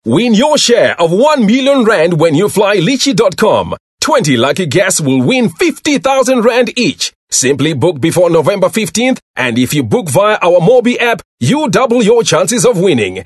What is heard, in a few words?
English Hardsell